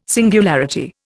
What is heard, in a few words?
"singularity", Indian